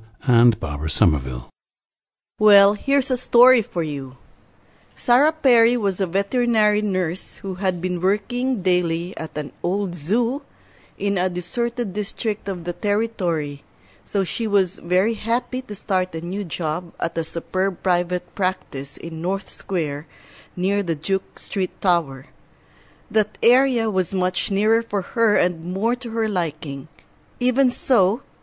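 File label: philippines, dialects of English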